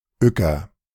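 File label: "Uecker" German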